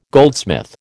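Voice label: "goldsmith", American English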